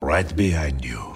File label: rage; ff2; lof shin